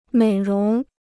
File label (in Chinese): "美容", Chinese